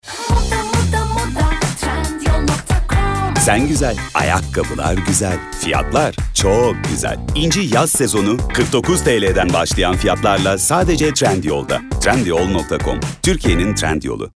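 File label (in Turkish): kampanya, seslendirme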